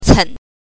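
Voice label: "cen", Mandarin Chinese pinyin, neutral tone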